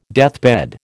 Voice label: "deathbed", American

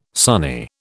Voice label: "Sunni", British

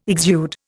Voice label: "exude", Indian

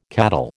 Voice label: "cattle", American

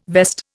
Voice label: "vest", Indian

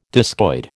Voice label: "discoid", American

